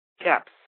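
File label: "caps" English, American accent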